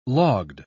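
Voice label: "logged", British English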